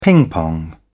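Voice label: "ping pong", British English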